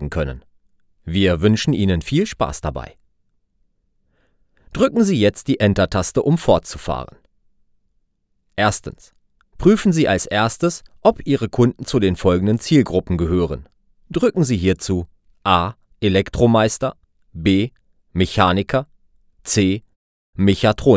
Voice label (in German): e learning, sprecher